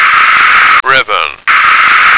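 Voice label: "ribbon", American English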